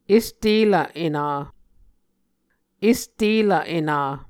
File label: "est elv ena", Muscogee